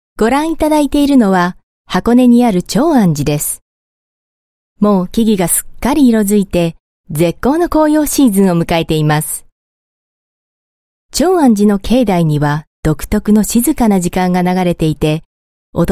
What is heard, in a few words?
Japanese Female